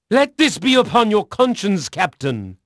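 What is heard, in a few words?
English male; Pirates Of The Caribbean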